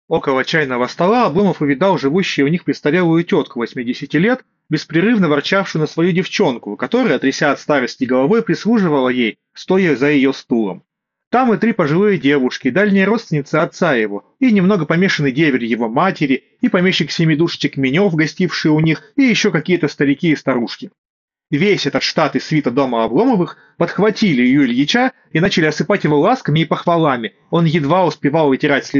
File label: Mic; oneplus nord buds 4 pro